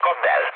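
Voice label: attack position, mood low, Hungarian; War Thunder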